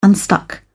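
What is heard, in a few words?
"unstuck", British English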